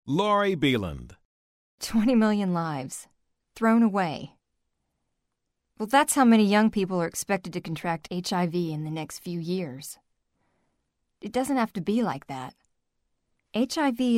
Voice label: CONCERNED